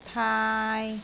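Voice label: "taai3", Cantonese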